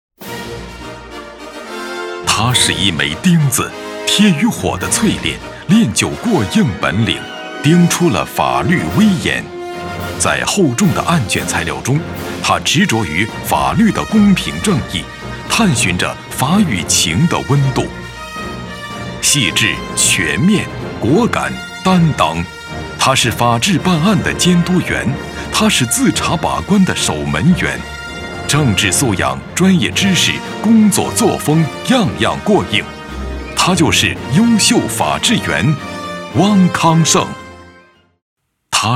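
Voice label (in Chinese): 颁奖个人版法制员奖大气坚定自信刚柔并济公安法律警务, 男配音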